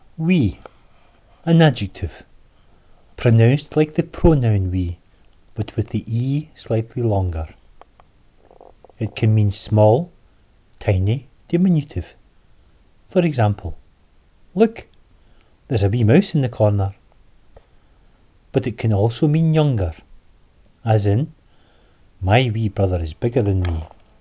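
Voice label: wee, schottisch; scotch-corner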